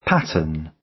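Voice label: "pattern", British English; Time, history; Thời gian, lịch sử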